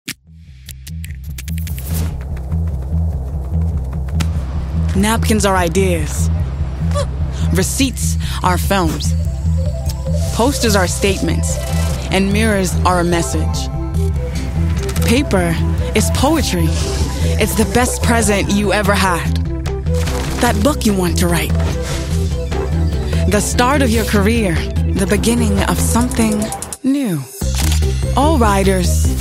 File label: VO EN; STABILO